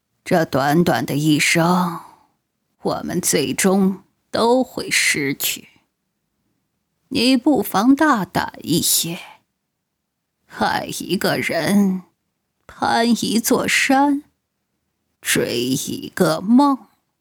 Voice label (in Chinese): 老年